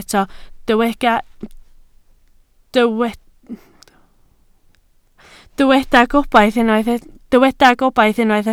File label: "gobaith", Welsh